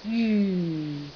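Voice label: "jyu4", Cantonese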